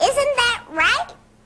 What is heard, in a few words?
isn't that right boy, voice, question; questions